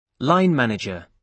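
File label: "line manager", British English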